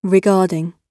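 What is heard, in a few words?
"regarding", British English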